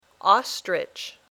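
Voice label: "ostrich", English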